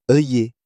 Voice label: "oeillet", French